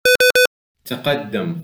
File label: Please Drive Forward with effects Arabic